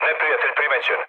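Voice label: Serbian enemy detected mood low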